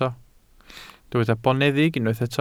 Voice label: "boneddig", welsh